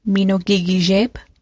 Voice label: "good morning", ojibwe